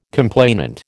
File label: "complainant", American